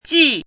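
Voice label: "jì", Chinese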